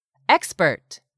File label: "EXPERT", english; 3000 words